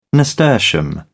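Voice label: "nasturtium", British English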